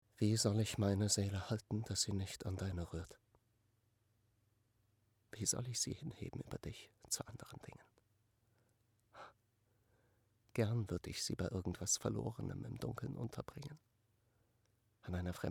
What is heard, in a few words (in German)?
Sprecher Emotion Traurig